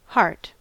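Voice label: "hart", American English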